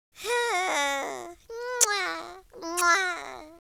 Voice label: happy; speech

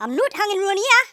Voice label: "bye bye", Geordie; speech

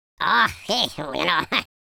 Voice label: pray, Scotsman; speech

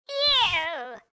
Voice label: relief

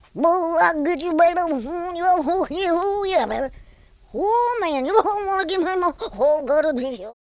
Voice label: angry, Blues Man speech

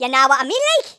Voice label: orders, Geordie; speech

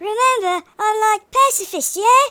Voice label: yes sir, speech, Hippy

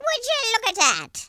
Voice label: "amazing", Irish; speech

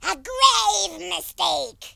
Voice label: missed, speech, Horror

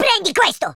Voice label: dragonpunch, Italian

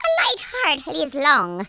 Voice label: just you wait, Irish; speech

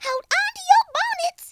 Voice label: fire, Southern Belle; speech

